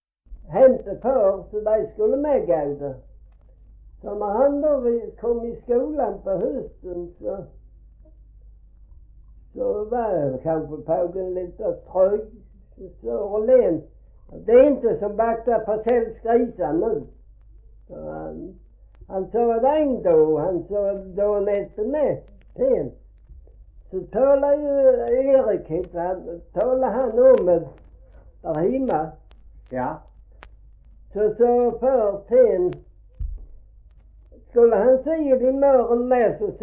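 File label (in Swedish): Gråmanstorp Skåne, dialekter svenska sagor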